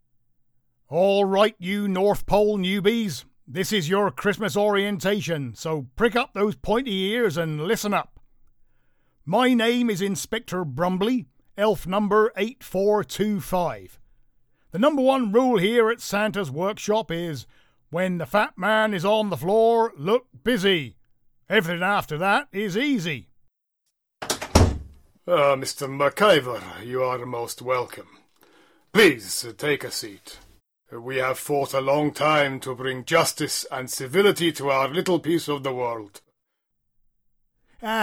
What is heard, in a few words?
Charac Accents